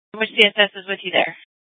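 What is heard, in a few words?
police dispatch, scanner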